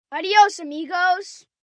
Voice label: Spanish By Friend, Greetings, 1 boy, 10 yrs., Spanish